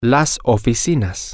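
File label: the offices, spanish, male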